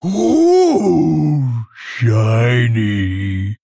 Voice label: Male Orc Play

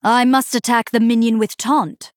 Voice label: Female Night Elf

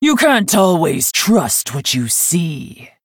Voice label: Female Orc Play

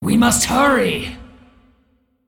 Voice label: Female Dragon

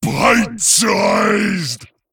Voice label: Male Abomination Attack

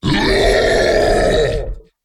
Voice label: Male Frost Giant Attack

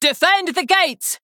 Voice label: Female Human Play

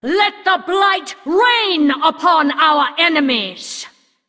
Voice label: Female Undead; Anduin; Mission8

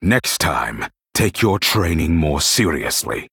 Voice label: Male Human Loss

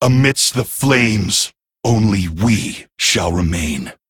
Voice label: Male Night Elf Play

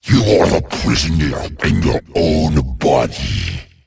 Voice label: Male Faceless Play

